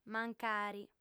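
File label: "mancari", female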